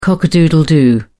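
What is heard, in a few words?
"cock a doodle doo", British English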